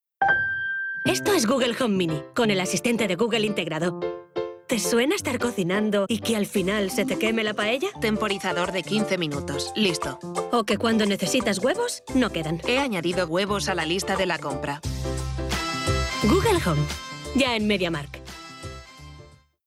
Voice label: female spanish web comercial